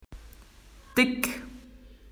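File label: "thick", Australian English; PictureDictionary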